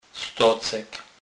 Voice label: "stocek", kurpiow slownik

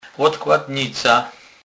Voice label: "lodkladnica", kurpiow

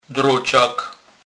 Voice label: "drocak", kurpiow

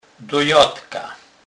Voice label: "dojadka", kurpiow

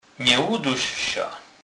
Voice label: "dusec", kurpiow

